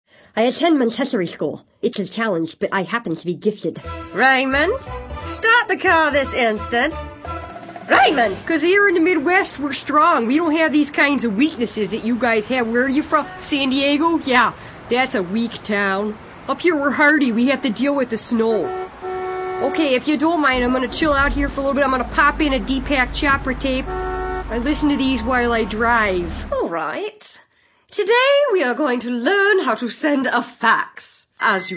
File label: female, animation